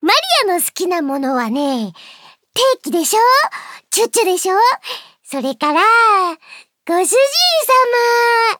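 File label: info, Japanese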